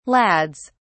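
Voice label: "lads", British English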